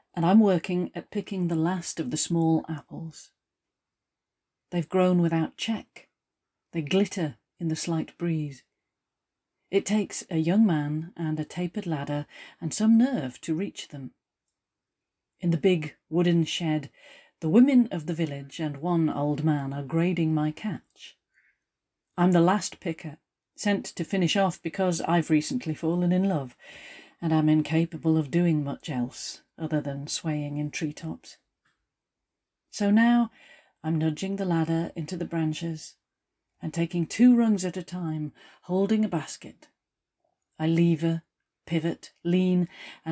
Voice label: poem read